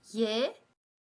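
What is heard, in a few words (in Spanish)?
"lle", Español; Espagnol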